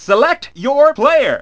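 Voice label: announcer, Japanese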